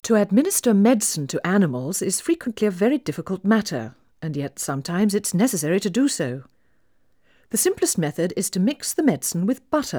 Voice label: Female speech English Mono output noised; 3bit